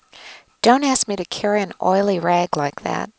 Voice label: anechoic voices